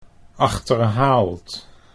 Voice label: "achterhaald", Dutch

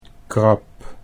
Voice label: "krab", Dutch

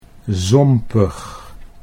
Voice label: "zompig", Dutch; 'swampy, marshy'

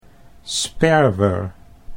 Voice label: "sperwer", Dutch

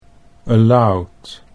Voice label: "aloud", Dutch English

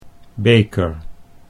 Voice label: "beker", Dutch

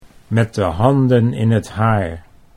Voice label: "met de handen in het haar", Dutch

